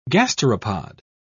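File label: "gasteropod", British English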